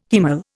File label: "haemal", Indian